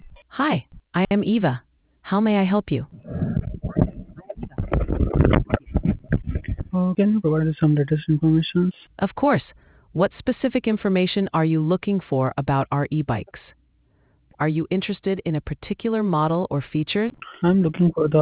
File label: conversation